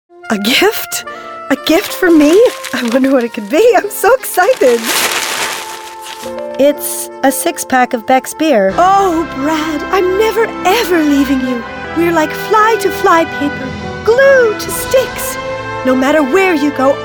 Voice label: commercial female